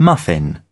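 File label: "muffin", British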